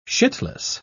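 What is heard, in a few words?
"shitless", British English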